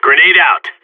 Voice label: he grenade, radio; cstrike